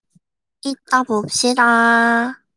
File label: voice message; 음성